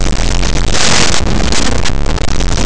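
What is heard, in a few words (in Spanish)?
"carta", Spanish, vibrants; laboratori fonetica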